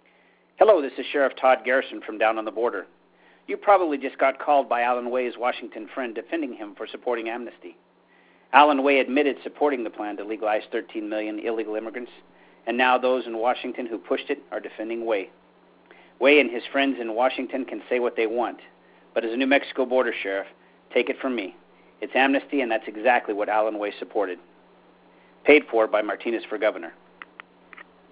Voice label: robocall